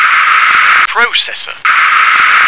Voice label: "proccessor", English